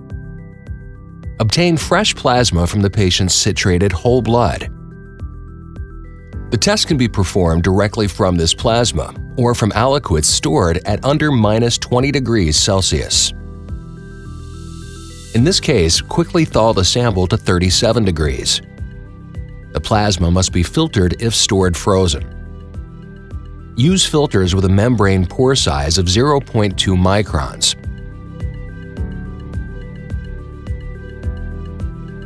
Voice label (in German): Video Tutorial Profi Publikum, NATIVE; PROFISPRECHER